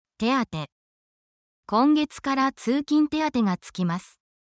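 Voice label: Japanese word, essential Japanese phrases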